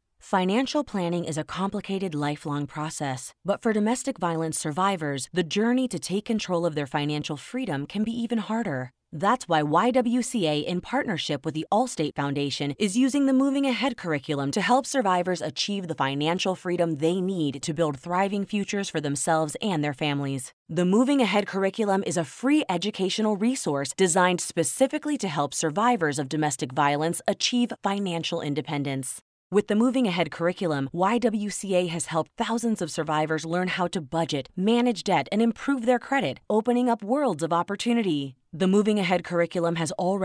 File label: Political Explainer Caring Reporter Engaging Female English Commercial Inspiring; NonProfit